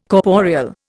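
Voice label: "corporeal", Indian English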